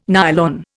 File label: "nylon", Indian